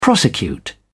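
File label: "prosecute", British English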